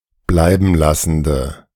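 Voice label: "bleibenlassende", German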